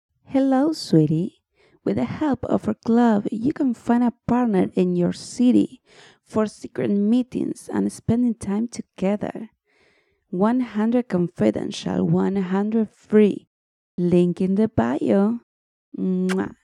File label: announce